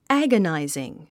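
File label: "agonizing", English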